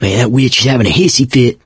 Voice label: witch getting angry, survivor voice mechanic; source engine; left 4 dead 2

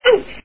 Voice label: kid saying ouch; video games; roblox 2007

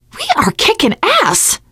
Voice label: hurrah, teen girl, survivor voice

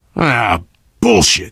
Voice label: swear, player survivor voice biker; source engine; left 4 dead 2

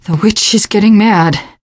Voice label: witch getting angry, teengirl; survivor voice

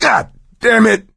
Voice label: reaction negative; voice; source engine; left 4 dead 2

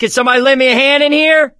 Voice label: call for rescue, survivor voice

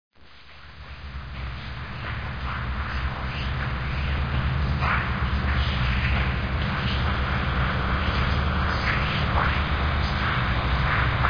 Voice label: hallucination voices; Source Engine; de deadblock

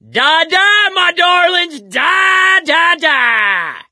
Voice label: battle cry, survivor voice; source engine; left 4 dead 2

